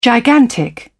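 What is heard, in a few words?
"gigantic", British English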